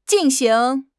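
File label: "jin4 xing2", Chinese; HSK4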